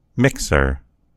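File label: "mixer", American English; gcompris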